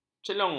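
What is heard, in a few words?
"celung", Malay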